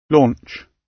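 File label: "launch", British English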